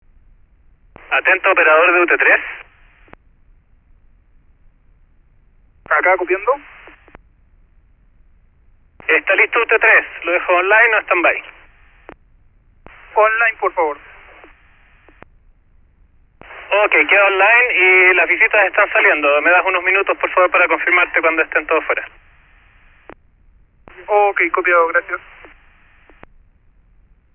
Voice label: paranal radio handover, mono